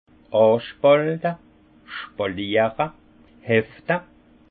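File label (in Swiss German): "anspalte", alsace Haut-Rhin; vin; palisser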